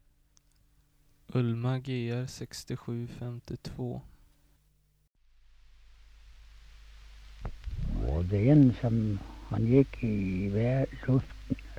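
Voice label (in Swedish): Säterbo Västmanland, dialekter; Folkminnen; Svenska sagor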